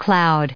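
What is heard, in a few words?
"cloud", US English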